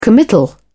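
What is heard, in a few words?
"committal", English, British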